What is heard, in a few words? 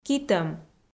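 "китӧм", Komi, female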